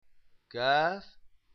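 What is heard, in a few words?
"kaf", Arabic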